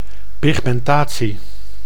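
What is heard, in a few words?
"pimentatie", Dutch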